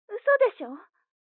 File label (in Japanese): 彼女の電話台詞, ASMR